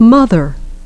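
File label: "mother", English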